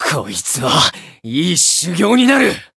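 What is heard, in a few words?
encount fear, Japanese; shigure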